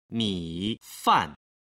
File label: "mifan", Chinese